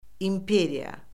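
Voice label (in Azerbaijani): "imperiya", Azerbaijani; Orfoqrafiya Lüğəti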